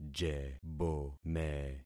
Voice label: "jebome", concat syllables; Affixing experiment